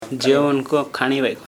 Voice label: "jyoQnko" blind female, Remo